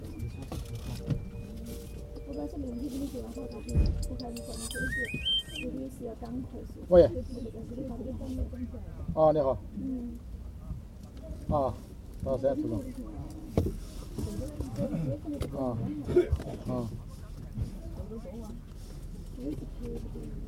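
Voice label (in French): conversation au téléphone; Chine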